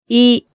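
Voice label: "i", Japanese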